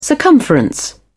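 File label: "circumference", British English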